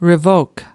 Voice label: "revoke", us

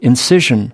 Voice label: "incision", us

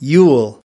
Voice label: "yule", us

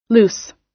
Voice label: "loose", us

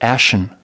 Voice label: "ashen", US

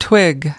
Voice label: "twig", us

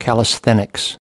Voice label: "calisthenics", us

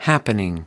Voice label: "happening", us

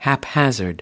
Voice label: "haphazard", US

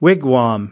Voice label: "wigwam", us

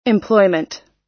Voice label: "employment", us

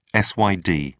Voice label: "syd", uk

